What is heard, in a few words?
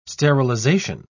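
"sterilisation", British English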